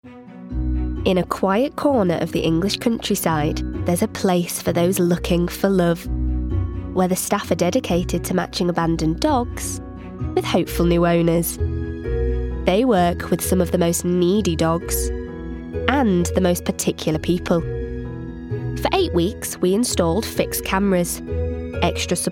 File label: doco